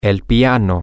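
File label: the piano, spanish, male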